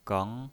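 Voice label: "geng", Mandarin Chinese, second tone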